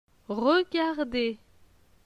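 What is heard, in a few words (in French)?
"Regarder", French; phonetique; les consonnes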